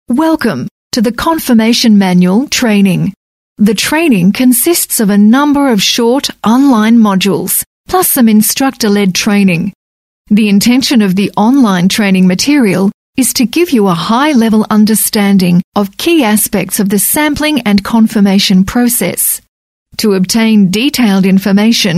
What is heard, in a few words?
female talent corporate